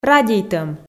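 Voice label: "радейтӧм", Komi, female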